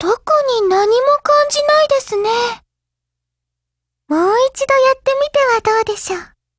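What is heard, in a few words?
FEED Japanese; Carcano1938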